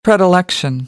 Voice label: "predilection", American English; ə - ɪ